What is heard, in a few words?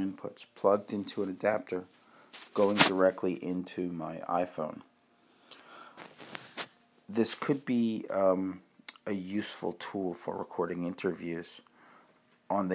sennheiser e835 mic